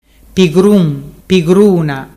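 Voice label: "pigrun pigruna", Ligurian dialect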